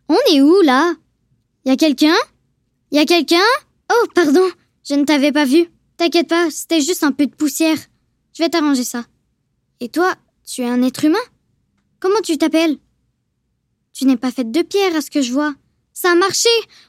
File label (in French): Voix Doublage